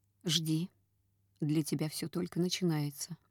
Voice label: woman